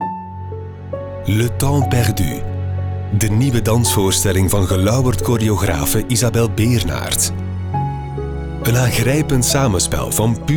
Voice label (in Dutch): spot lokaal; le temps perdu antwerpen; studio sonart